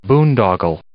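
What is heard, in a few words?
"boondoggle", English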